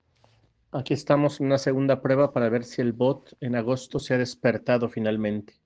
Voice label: Voice message